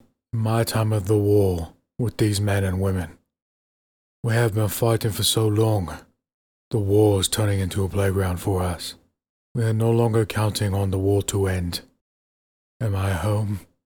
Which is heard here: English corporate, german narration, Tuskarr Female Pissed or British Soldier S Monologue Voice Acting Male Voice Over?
British Soldier S Monologue Voice Acting Male Voice Over